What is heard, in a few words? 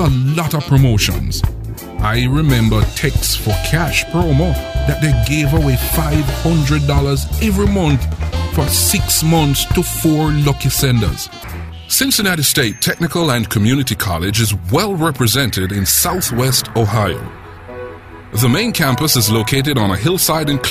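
Caribbean male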